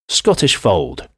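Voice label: "scottish fold", English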